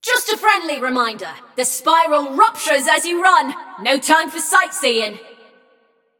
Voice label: Scrap Run General Reminder, Announcer; Flux

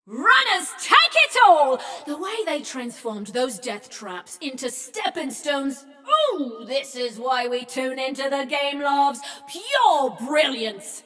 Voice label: Runners Win, Announcer; Flux; Scraprun

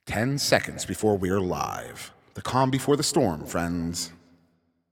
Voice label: 10 Seconds To Game Start, Announcer

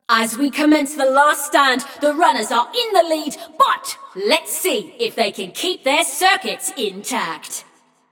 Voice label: Runners Lead, Announcer; Flux; Scraprun

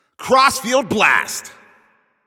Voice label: Announcer Long Hit To Other Side

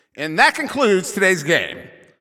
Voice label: Announcer Game Ends

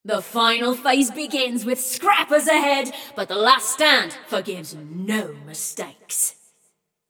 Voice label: Scrappers Lead, Announcer; Flux; Scraprun

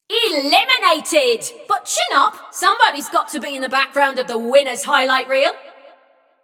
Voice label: Scrap Run Inform Runner Eliminated, Announcer; Flux

